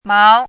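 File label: "mao2", Mandarin Chinese syllable, pinyin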